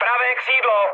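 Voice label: right wing, Czech, mood med; War Thunder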